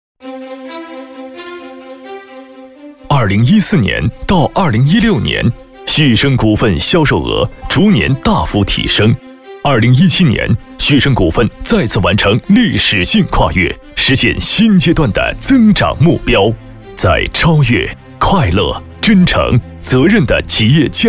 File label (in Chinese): 宣传片 企业 大气, 男国; 安煦生股份